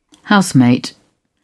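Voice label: "housemate", British English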